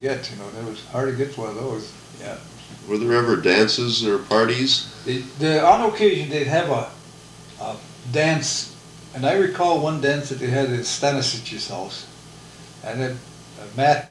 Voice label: Personal history with 66 year old male Buhl Minnesota, american english dialect